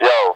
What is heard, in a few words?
"yo", Voice